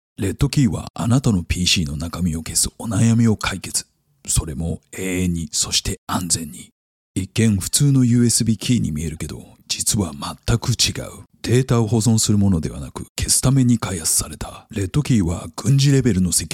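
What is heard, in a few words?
epic voiceover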